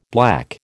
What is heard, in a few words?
"black", American